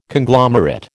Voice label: "Conglomerate", American

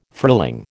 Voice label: "frilling", American

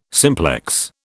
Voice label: "simplex", British

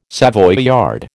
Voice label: "Savoyard", American

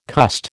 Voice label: "cussed", American

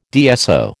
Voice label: "DSO", American

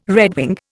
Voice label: "redwing", Indian

